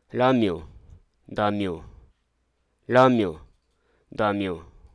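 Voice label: Hruso Aka; connect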